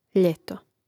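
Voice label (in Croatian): "ljeto", Croatian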